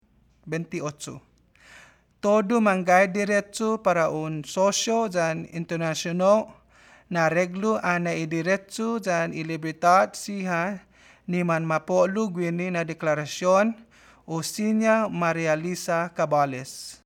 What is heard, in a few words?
Chamorro